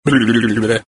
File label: comedy give your head a shake